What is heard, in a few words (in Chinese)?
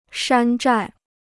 "山寨", Chinese